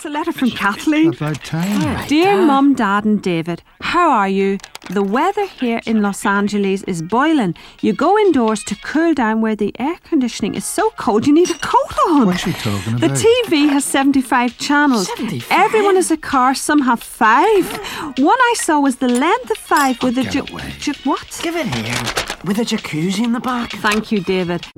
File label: Drama, female